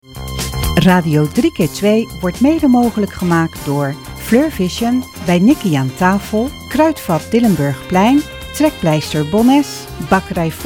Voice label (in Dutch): spot; Blokken